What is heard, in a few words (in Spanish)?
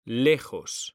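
"LEJOS", male; mekanta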